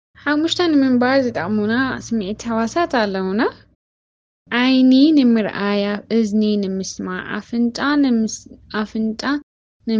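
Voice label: Tigrinya female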